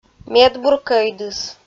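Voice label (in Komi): "медбур кӧӥдыс", Komi, female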